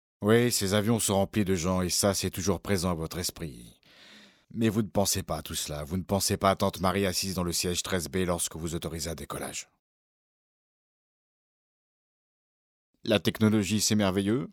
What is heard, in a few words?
Voice over